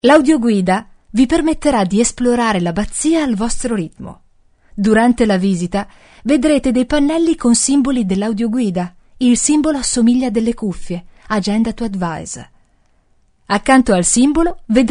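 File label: Italian, female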